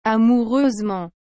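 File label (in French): "amoureusement", French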